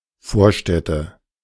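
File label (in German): "Vorstädte", German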